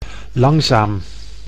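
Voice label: "langzaam", Dutch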